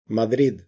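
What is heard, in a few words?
"madrid", Spanish, castilla masculino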